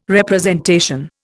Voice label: "representation", Indian